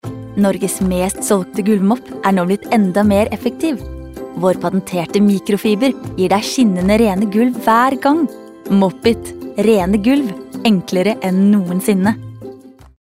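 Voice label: no commercial, Norwegian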